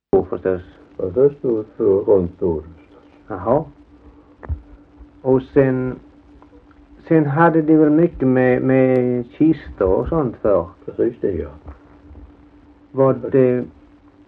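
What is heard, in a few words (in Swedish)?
Alfshög Halland, dialekter; Folkminnen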